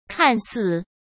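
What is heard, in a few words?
"看似", Mandarin Chinese; chinese flashcards; hsk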